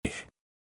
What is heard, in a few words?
Phoneme, Male; Commonscript; Lynguistykon